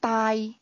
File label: "big", Cantonese